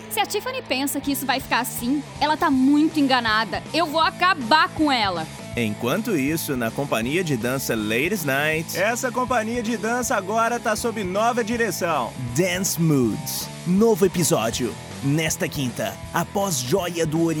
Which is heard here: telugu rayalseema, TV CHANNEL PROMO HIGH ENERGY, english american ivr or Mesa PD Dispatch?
TV CHANNEL PROMO HIGH ENERGY